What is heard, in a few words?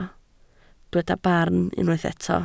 "barn", Welsh